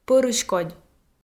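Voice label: "пӧрыськодь", Komi, female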